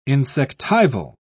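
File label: "insectival", British English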